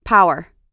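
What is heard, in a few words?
"power", American English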